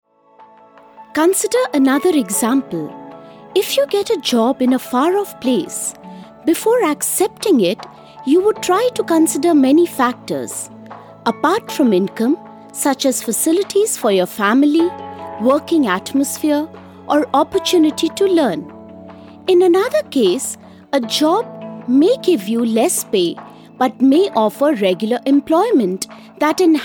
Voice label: Explainer

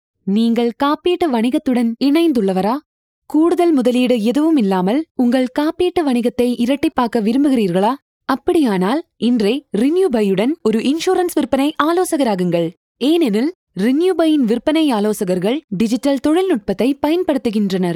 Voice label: Tamil; Renewbuy

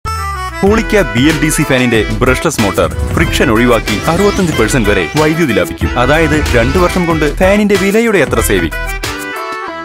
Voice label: Commercial, Malayalam

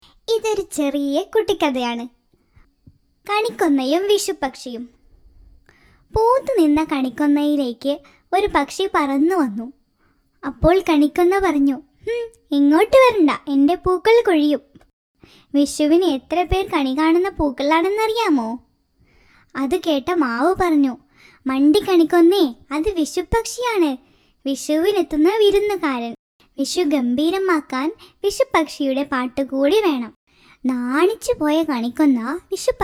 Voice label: KIDS VOICE

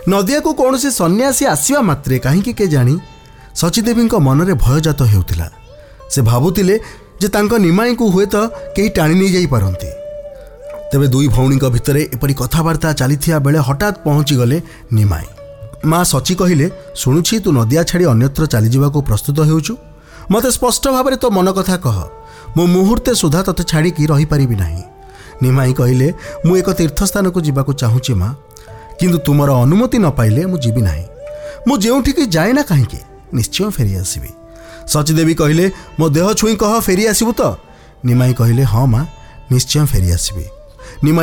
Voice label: narration oriya

